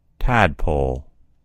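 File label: "tadpole", American English; gcompris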